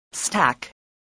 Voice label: "stack", voice